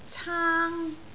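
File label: "caang1", Cantonese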